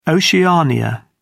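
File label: "oceania", British English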